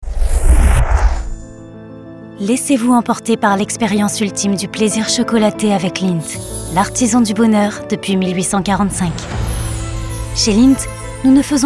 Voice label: french commercial; France